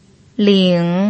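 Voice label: "ling", Mandarin Chinese pinyin, tone 3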